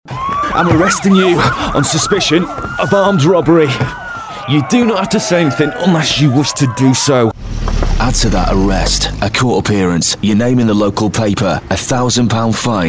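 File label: UK male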